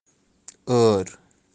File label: "Aear", Kashmiri